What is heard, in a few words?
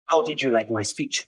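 Voice Acting es M, Spanish, male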